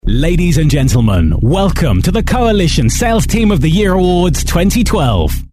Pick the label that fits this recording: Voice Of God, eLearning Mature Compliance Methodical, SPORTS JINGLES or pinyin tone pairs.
Voice Of God